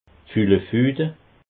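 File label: "fulefude", Alsatian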